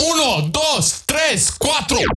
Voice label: Predrop Processed - Uno Dos Tres Quatro - 110 BPM, Vocals; Cymatics; Euphoria Vocal Sample Pack